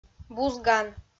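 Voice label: "бузган", Komi, female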